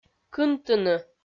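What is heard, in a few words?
"кынтыны", Komi, female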